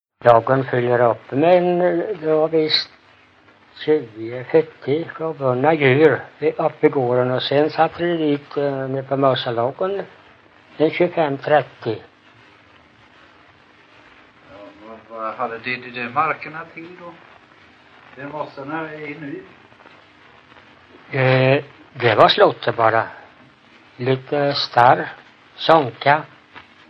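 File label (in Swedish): Ingatorp Småland, dialekter; Folkminnen; Svenska sagor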